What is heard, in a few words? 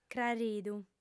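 "craridu", Sardinian, female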